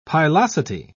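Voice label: "pilosity", British English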